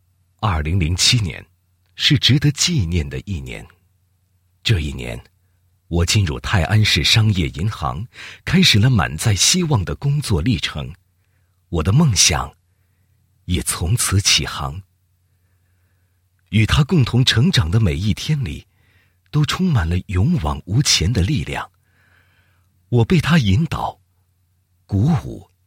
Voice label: 男 自白; 泰安市商业银行员工自白

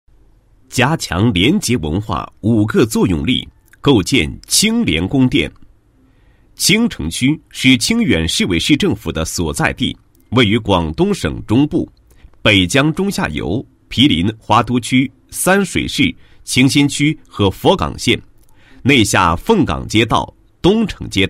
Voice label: 男 专题 宣传片; 清城供电局廉洁文化建设

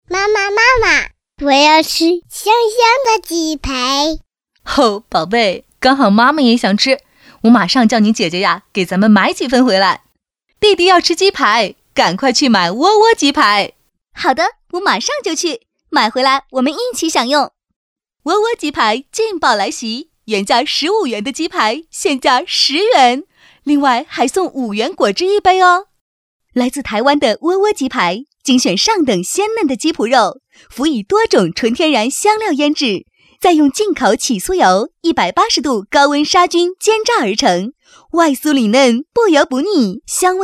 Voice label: 女 童声 干声; 和妈妈合作

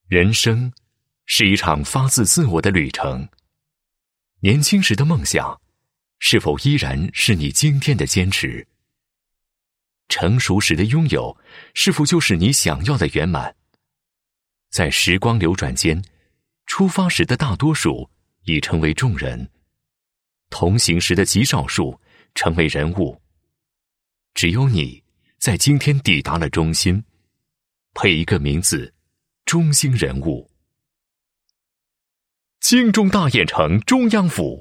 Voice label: 男-房地产广告; 金钟大雁城

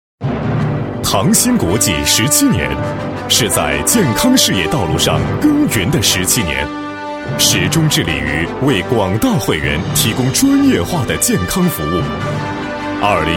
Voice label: 男 专题 大气激情, 国语男声配音, 浑厚; 浑厚专题; 唐星国际

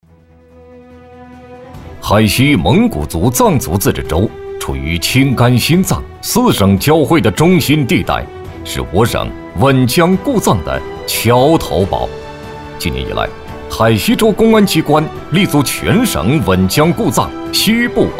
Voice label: 男100号-专题-公安专题 快速 力量, 国语男声配音

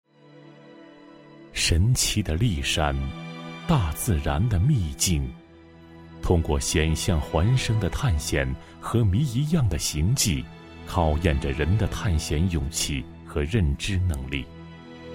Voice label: 男 专题 磁性, 国语男声配音

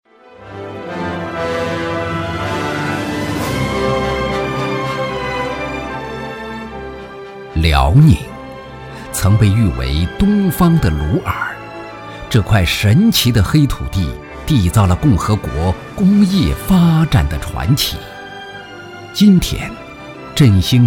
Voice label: 浑厚、大气, 国语男声配音; 锦铁公司

